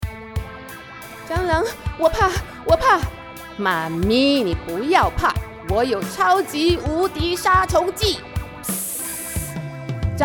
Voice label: Mandarin VO